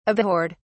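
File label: "abhorred", British English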